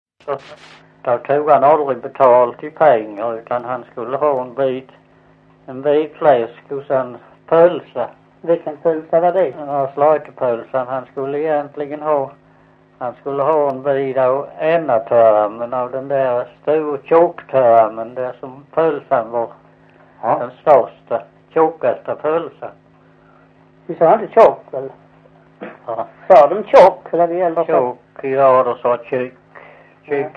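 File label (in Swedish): Visseltofta Skåne, svenska sagor dialekter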